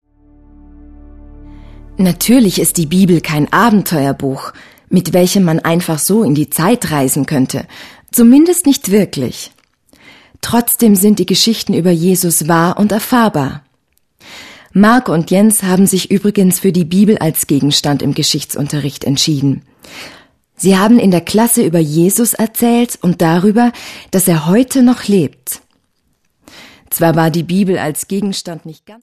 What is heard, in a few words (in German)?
sprechszene